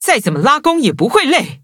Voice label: level up, zh-hant; cookie run